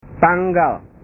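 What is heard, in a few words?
"tanggal", Indonesian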